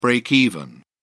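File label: "breakeven", British English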